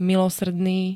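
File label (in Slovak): "milosrdný", slovenské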